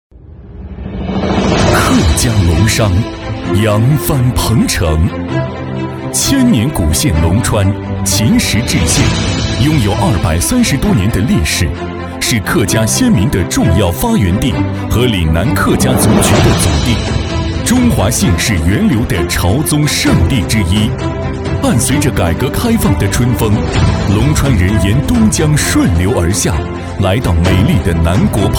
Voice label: 男, 专题配音, 十年商会, 大气恢弘; 激情有力; 大气浑厚; 男121-专题配音《十年商会》-大气恢弘

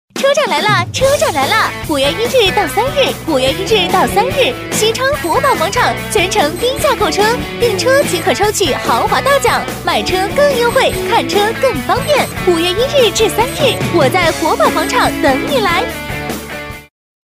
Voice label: 女, 促销广告, 车展, 激情活泼

